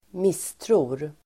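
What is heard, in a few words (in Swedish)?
"misstror", Swedish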